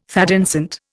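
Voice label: "thé dansant", Indian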